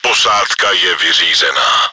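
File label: crew deactivated, чешской озвучки; Posádka je vyřízena.; Czech; Экипажу конец; World of Tanks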